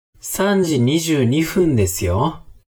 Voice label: man